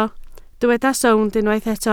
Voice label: "sownd", Welsh